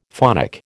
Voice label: "phonic", American